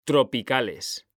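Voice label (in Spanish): "tropicales", Spanish, male; mekanta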